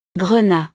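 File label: "grenat", French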